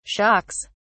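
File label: "shocks", British English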